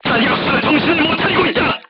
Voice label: voice message charger contused, korean, crew tanks